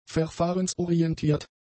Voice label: "verfahrensorientiert", German; adjectives